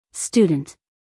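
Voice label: "student", American English, female; everyone-can-use-english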